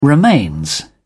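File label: "remains", British English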